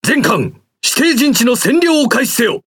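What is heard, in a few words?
Speech Quick Commands Enemy Base, Japanese; World Of Warships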